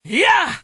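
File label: male attack; rascal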